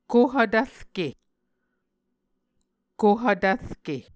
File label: "kohv tvrke", Muscogee